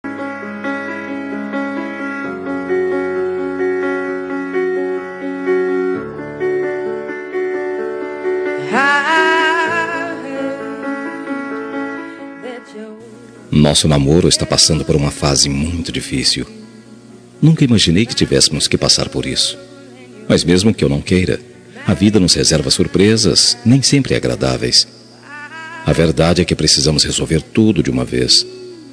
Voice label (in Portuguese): toque masc, Toque para Não Terminar – Voz Masculina; Telemensagens para todas as ocasiões